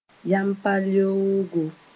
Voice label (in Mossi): "yampaleoogo", moore